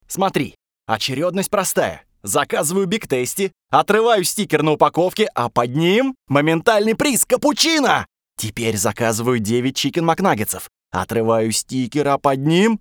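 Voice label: reklama, advert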